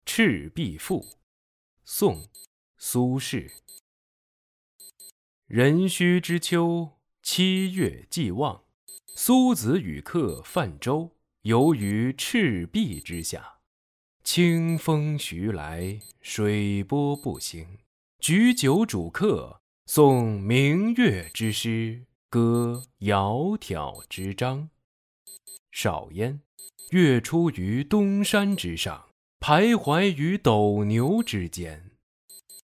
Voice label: 古诗文朗诵

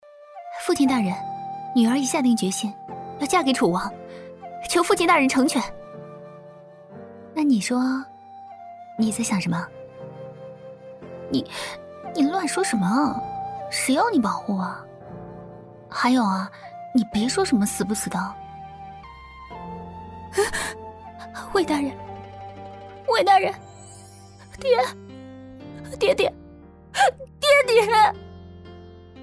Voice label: 古风角色

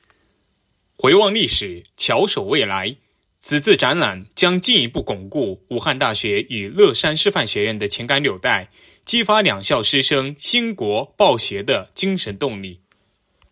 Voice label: 解说词配音

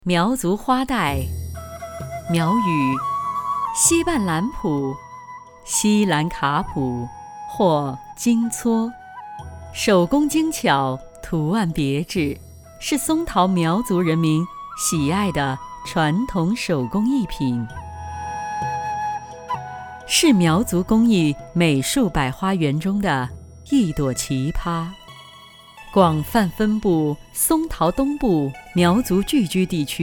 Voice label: 稳重大气, 中英女声; 苗族花带

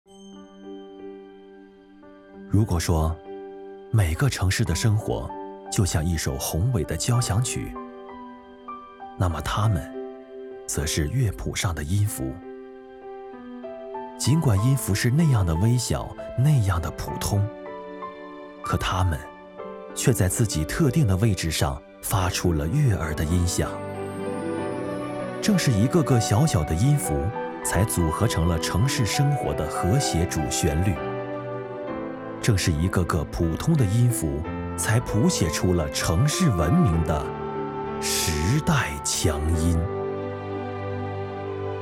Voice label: 环卫宣传片

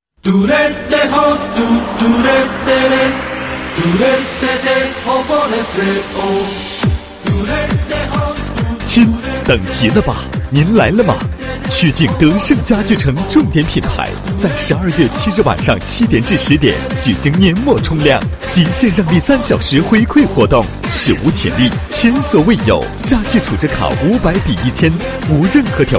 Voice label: 播音 加 音乐 激情; 曲靖德胜家具城

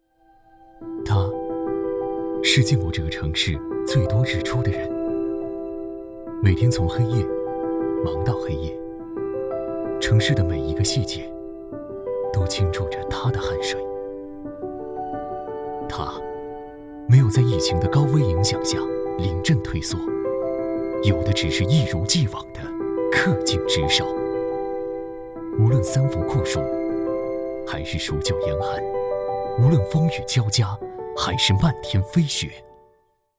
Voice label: 公益宣传

